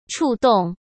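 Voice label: "触动", Mandarin Chinese; hsk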